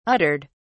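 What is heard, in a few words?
"uttered", British English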